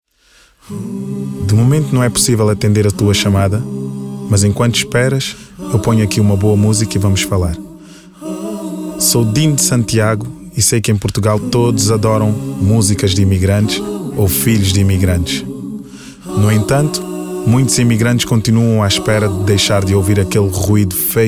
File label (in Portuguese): telefone; Mensagem; Vidas em Espera